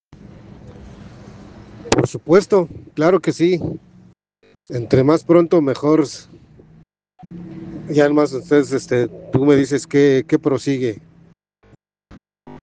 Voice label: whatsapp ptt